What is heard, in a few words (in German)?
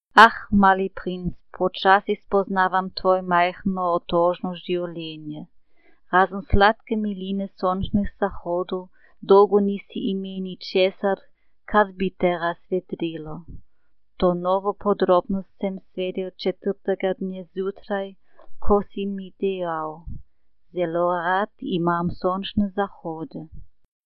Slowenisch, Ljubljana